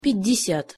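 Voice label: "pjat desjat", Russian pronunciation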